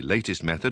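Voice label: latesT method, Standard Southern British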